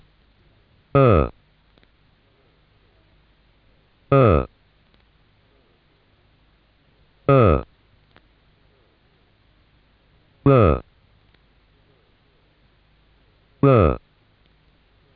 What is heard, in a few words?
badaga